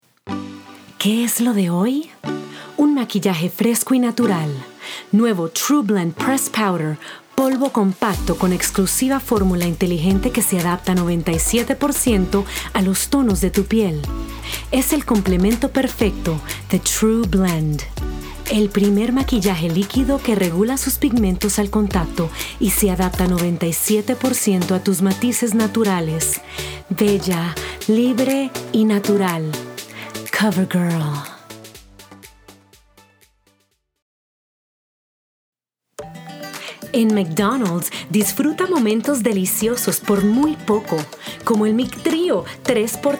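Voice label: Spanish Voice Over, female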